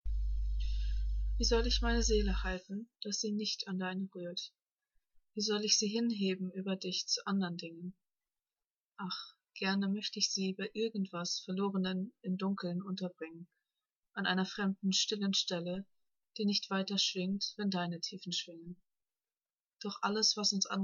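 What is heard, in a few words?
Liebes-Lied, German, Love, female; by Rainer Maria Rilke; February Poem Challenge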